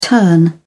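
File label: "turn around", British English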